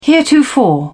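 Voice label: "heretofore", British English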